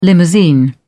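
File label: "limousine", British English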